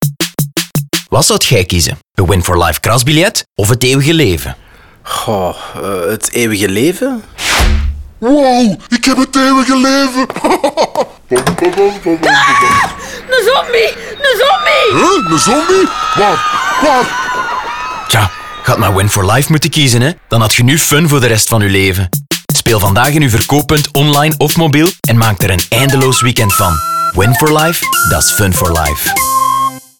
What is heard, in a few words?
Radio NL; WinForLife; Zombie